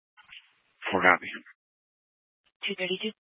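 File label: Fire Dispatch, Scanner audio recording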